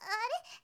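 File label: "are", Japanese, girl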